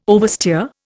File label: "oversteer", Indian